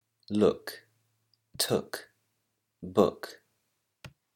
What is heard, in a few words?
english pronunciation rules